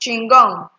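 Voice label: "chingum", Nigerian Pidgin, female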